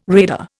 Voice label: "radar", Indian